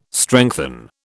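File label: "strengthen", British English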